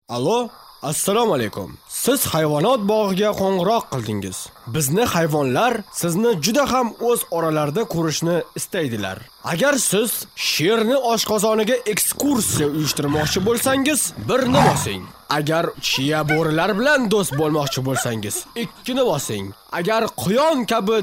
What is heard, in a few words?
Uzbek Male